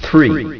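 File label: "three", spoken; BeamWars™ 2.3.2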